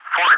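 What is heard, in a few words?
"Ford", English, ATC callsign; DCS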